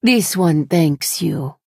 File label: Khajiit female thank you